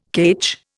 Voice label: "ketch", Indian

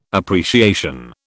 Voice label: "appreciation", British

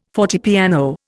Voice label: "fortepiano", Indian

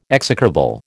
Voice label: "execrable", American

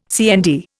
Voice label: "CND", Indian

